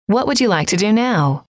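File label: what Would You Like To Do Now, female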